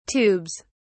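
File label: "tubes", British English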